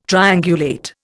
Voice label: "triangulate", Indian